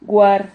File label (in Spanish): "guar", Silabas